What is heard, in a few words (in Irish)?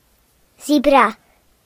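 "zebra", Irish; gcompris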